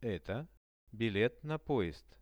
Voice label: eto bilet na poezd, Russian; Это билет на поезд.; transport; RUSSIAN VISUAL DICTIONARY